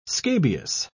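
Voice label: "scabious", British English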